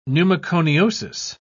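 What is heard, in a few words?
"pneumoconiosis", British English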